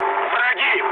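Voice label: Russian enemy detected mood med, speech; War Thunder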